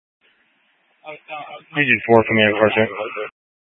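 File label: Incident Tac 1, Scanner audio recording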